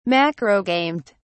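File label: "macrogamete", British English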